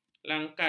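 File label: "langkas", Malay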